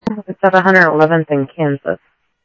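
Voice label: Sheriff West County, scanner audio trunk; MCSO - WEST Sheriff-WestCnty; ThompsonPeakScot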